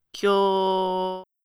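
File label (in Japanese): "きょ", Japanese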